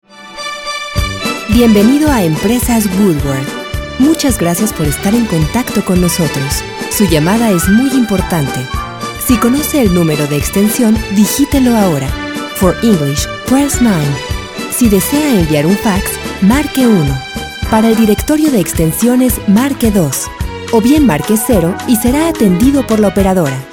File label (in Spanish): MENSAJE DE BIENVENIDA Y MENU